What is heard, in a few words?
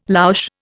"louche", Indian